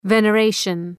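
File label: "veneration", English word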